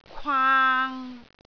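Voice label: "kwaang3", Cantonese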